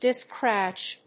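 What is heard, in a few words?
"disk crash", English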